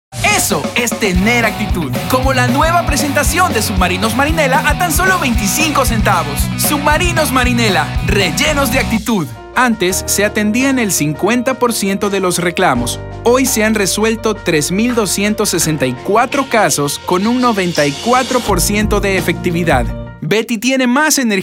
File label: spanish mexican commercial; Ecuador